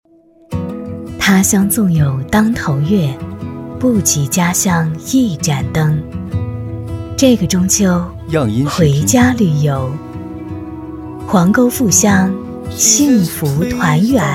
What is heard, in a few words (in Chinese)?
女, 广告, 中秋, 磁性温婉